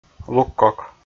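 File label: "лук кок", Komi, male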